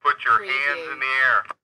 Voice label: Police Bull Horn Voice